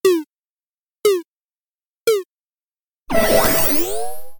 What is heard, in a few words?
tutorial 321 go; Space Wave Race